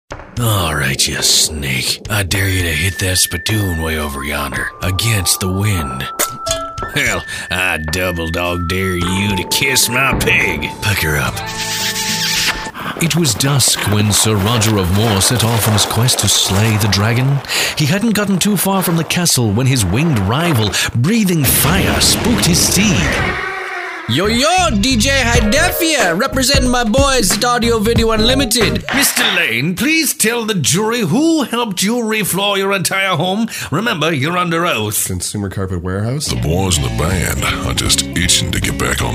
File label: Characters Male; commercial voiceover